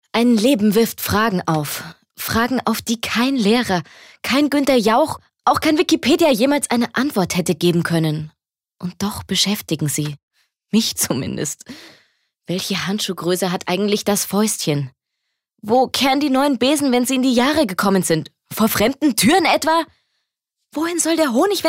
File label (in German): Schauspiel Dynamisch